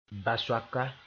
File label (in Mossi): "basoaka", moore; fish sp